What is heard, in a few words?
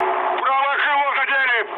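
right wing, mood med, Russian; War Thunder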